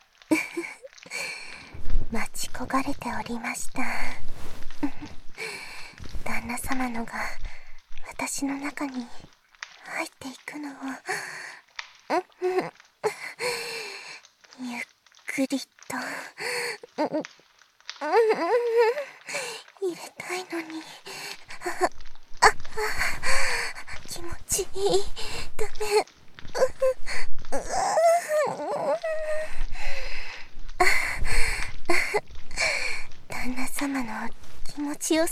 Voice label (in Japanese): 囁き、こっそりお布団の中で（囁き重視編集 効果音あり大きめ布団中音声編集）, ASMR; 本番